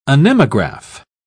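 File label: "anemograph", British English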